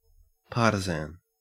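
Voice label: "partisan", Australian English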